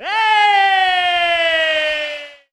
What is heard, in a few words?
"ay", voice